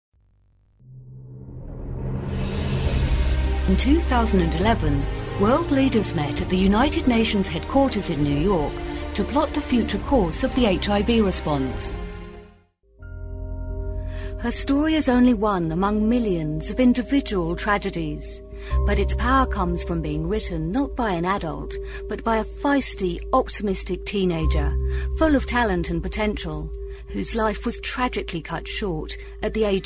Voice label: voiceover; Voice Realm